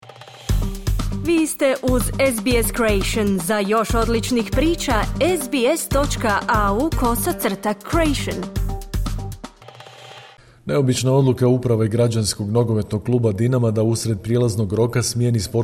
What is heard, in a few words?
croatian; dinamo usred prijelaznog roka smijeni sportskog direktora; sbs croatian